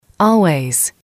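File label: "always", female, English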